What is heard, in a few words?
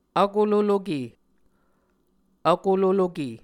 "vkololoke", Muscogee